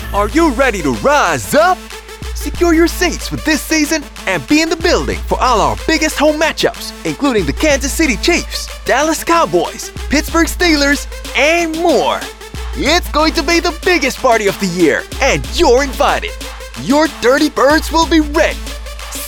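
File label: Sports voice over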